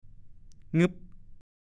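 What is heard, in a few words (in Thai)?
"งึบ" ภาษาโคราช; ปิดบัง เก็บเงียบ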